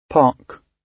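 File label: "park", British English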